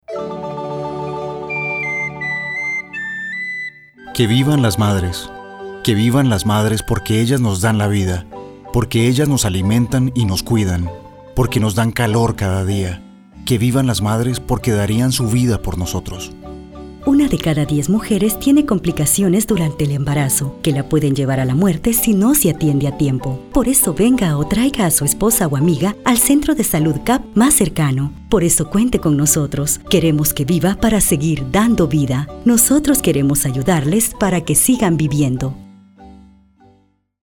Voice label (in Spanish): Espanyol; salud Materna